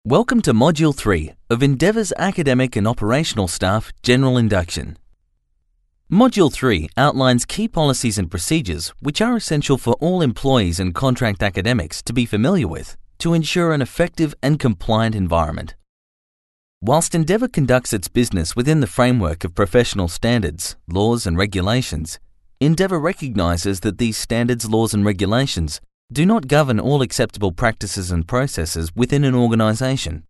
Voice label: eLearning